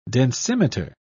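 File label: "densimeter", British English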